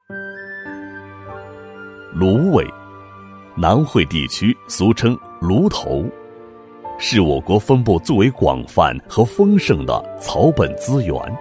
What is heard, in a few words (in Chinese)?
男-沉稳解说; 芦苇介绍